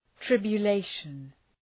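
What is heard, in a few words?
"tribulation", English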